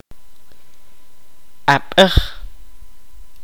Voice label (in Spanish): "piedra", Kaqchikel